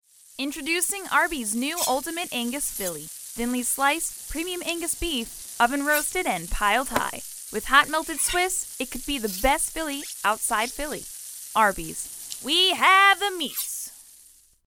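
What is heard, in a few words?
Commercial; Arbys; voiceover radio work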